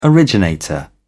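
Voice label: "originator", British English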